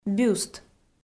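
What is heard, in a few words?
"бюст", Komi-Zyrian, female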